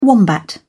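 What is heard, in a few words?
"wombat", British English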